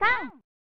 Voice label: "Kont!" (Female); pro mahjong kiwame 2; snes